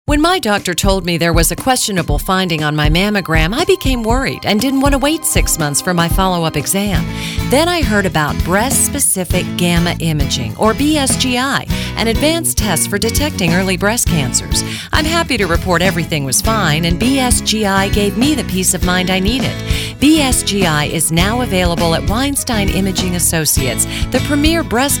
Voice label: radio Ad; KDKA